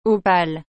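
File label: "opale", French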